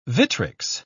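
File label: "vitrics", English, British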